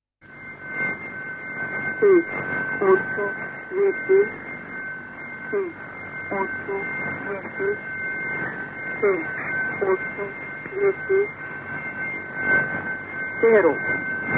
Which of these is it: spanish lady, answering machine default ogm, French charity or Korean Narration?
spanish lady